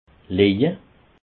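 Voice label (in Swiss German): "leje", Alsatian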